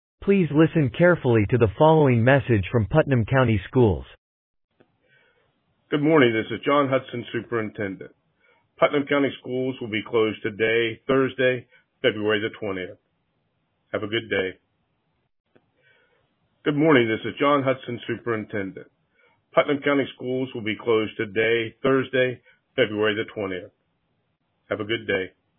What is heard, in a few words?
Voicemail